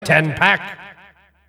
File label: 5 left, announcer; tf2cciv; open fortress